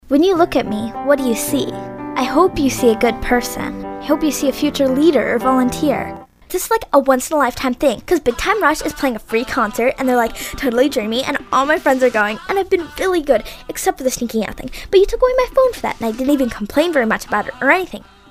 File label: kids commercial; Tween. Confident genuine and natural--you'll definitely buy from her in the fundraiser.